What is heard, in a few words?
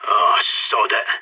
bomb exploding, sas; agents